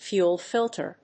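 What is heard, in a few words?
"fuel filter", English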